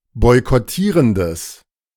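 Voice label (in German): "boykottierendes", German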